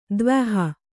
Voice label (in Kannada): "ದ್ವ್ಯಹ", Kannada